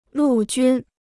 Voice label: "陆军", Chinese

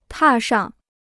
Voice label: "踏上", Chinese

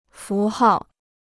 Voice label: "符号", Chinese

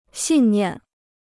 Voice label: "信念", Chinese

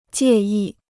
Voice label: "介意", Chinese

